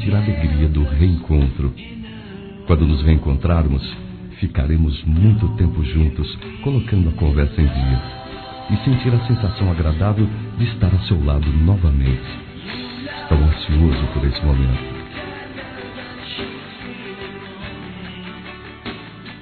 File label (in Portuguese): Saudade Romântica Masculino; Telemensagens; Saudades